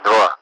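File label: Russian speech, Mi-8MT; Messages two; DCS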